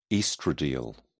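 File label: "oestradiol", English, British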